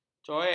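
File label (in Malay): "coek", Malay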